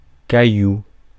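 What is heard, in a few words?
"caillou", French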